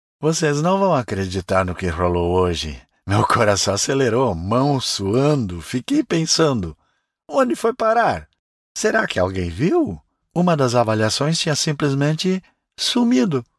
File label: Brazilian natural style